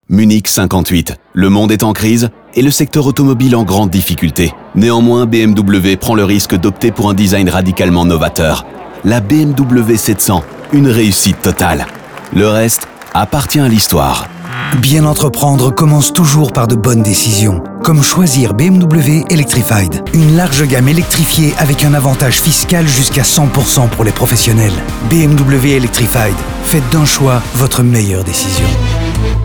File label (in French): pub radio; BMW electrified